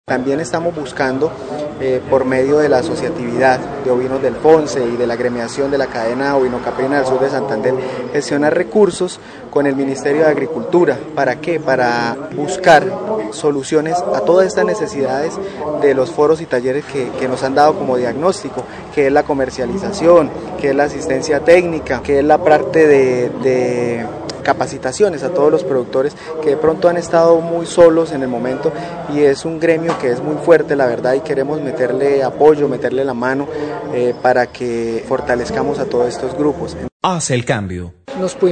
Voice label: OFF GERMAN